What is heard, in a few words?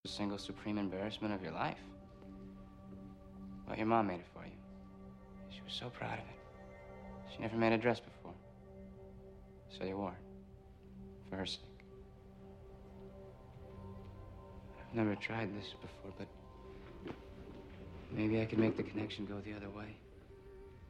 english